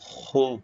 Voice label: short voyels arabic alphabet